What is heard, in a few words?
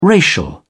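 "racial", British English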